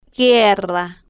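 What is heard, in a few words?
"guerra", Spanish, slow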